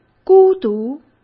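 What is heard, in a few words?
"孤独", Mandarin Chinese; hsk; chinese-flashcards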